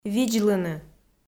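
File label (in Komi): "видзлыны", Komi, female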